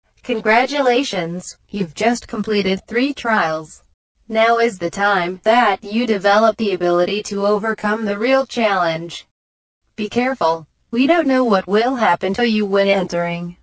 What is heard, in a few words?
com speaker